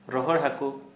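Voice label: Santali male dry fish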